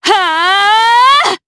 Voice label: Casting, jp; DarkFrey; kingsraid